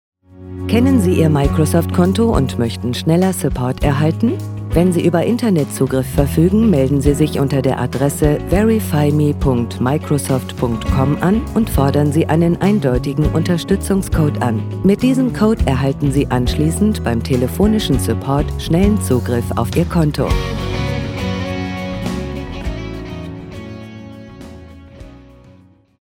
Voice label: Stereo; Microsoft XBox

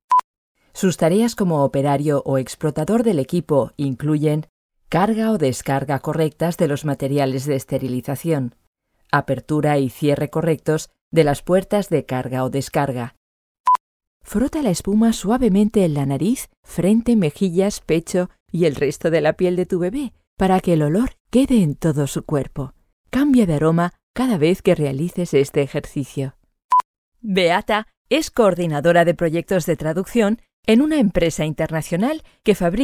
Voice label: e-learning es

